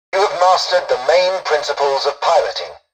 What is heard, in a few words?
tutorial dialogue, english; War Thunder